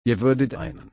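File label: "einen", German, slow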